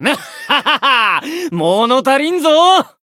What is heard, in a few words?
win jp